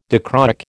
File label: "dichroic", American